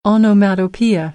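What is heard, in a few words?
"onomatopoeia", American English; AmericanBritish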